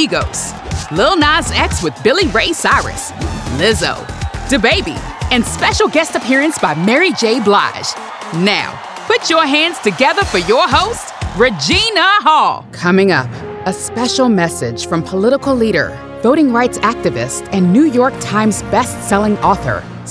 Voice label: Energetic Announcer Dynamic Award Show Conference

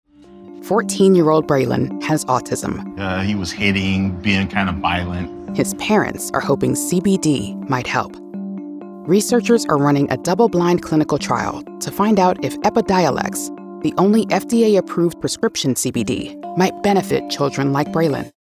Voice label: Medical Intelligent Health TV Narration

